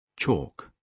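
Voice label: "chalk", British English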